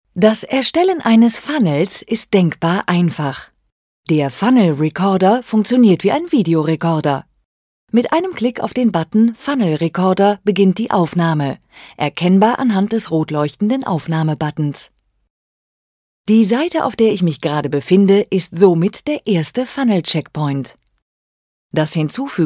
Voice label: E-Learn GER